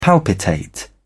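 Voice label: "palpitate", British English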